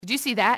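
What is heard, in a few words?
did you see, enemy, english, female; XBOX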